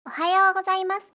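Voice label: "ohayou gozaimasu", Japanese; aisatsu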